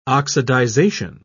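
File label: "oxidization", British English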